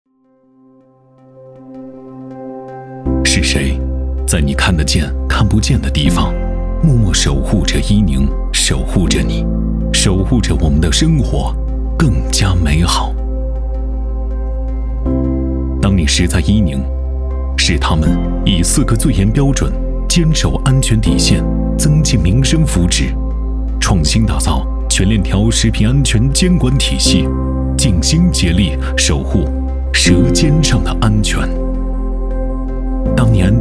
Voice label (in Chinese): 男,政府; 是谁在你看得见